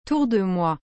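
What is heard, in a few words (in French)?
"tour de mois", French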